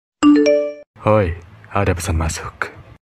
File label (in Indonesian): ada pesan masuk suara cowok; nada dering anime